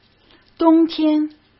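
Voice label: "冬天", Mandarin; chinese flashcards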